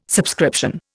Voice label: "subscription", Indian

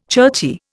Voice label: "churchy", Indian

